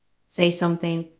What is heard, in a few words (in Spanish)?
Voice Acting es F0